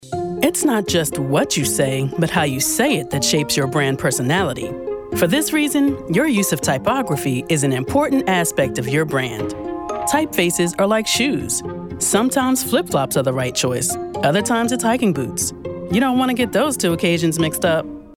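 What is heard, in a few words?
narration typography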